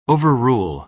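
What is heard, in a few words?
"overrule", American English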